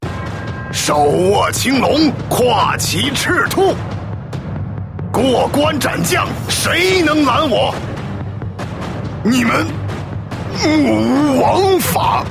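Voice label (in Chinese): male, dashu zhuanghan (middle-aged burly man)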